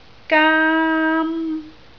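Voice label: "gaam1", Cantonese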